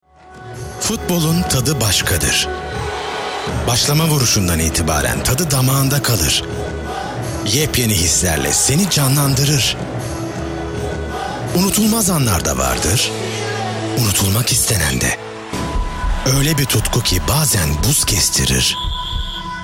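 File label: Turkish male; lektoring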